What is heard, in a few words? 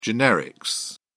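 "generics", British English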